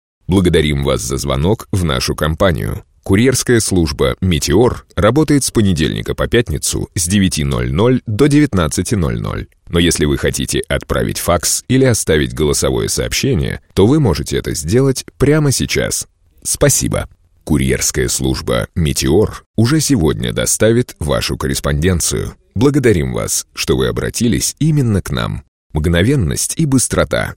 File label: ivr